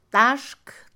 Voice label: Mixe, Mujer; cuatro; Números; INALI

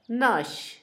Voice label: fruta, Zapoteco, Asunción Ocotlan; Comestibles; INALI; Haspelmath

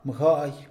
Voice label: "viejo", Mixe, hombre; Tiempo

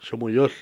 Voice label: "hormiga", Cucapá; Animales

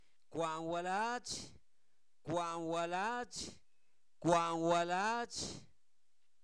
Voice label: Zapoteco Albarradas, santo domingo albarradas; quintonil

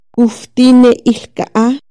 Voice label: "Cómo se llama esto", Chontal

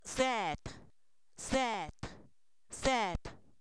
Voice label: Zapoteco, san miguel albarradas; sal; alimentos

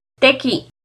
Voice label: cortar-tequi, Náhuatl; NAHUATLAHTOLLI

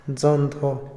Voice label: "siempre", Otomí, hombre; INALI

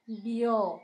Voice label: pulga, Zapoteco; Animales; San Pedro Mártir; INALI

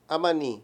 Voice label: "allá", Mayo; Palabras con distintas funciones

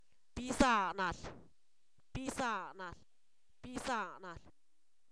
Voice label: Zapoteco, san miguel albarradas; su hermana; posesivos

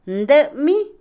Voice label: cabaña, choza, Otomí, mujer; Casa; INALI

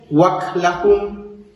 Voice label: dieciseis - "waklajun", Maya

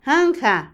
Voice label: "porqué", Otomí, mujer; Cognición; Vocabulary; INALI

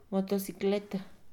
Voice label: motocicleta, Mixe, Mujer; Moderno; INALI

